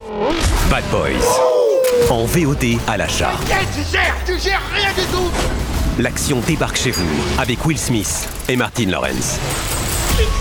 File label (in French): Trailer; voix-off; Bad Boys